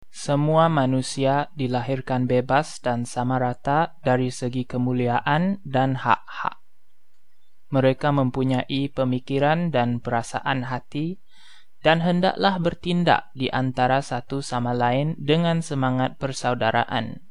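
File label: Malay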